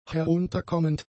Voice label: "herunterkommend", German; adjectives